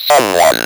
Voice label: "SOMEONE", Speak & Spell

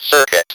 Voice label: "CIRCUIT", Speak & Spell

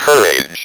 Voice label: "COURAGE", Speak & Spell

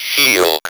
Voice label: "SHIELD", Speak & Spell

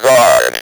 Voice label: "guard", Speak & Spell

